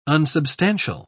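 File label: "unsubstantial", British English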